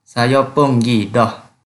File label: Kelantan-Pattani Malay